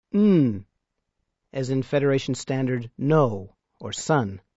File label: Klingon sounds n, Letter n, as in no